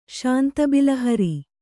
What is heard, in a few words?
"ಶಾಂತಬಿಲಹರಿ", Kannada; śanta bilahari; noun